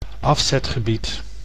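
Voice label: "afzetgebied", Dutch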